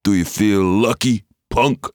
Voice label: Annoying; Trabuco

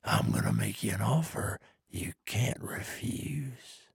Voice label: trader male dislike quest offer

